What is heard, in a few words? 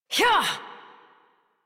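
horse start adult, English; Warframe; Duviri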